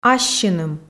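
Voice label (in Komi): "ассьыным", Komi, female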